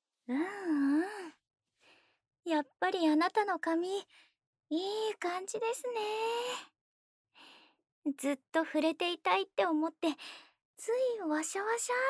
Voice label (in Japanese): 霧吹きを吹きかけながら耳元で囁き（両耳）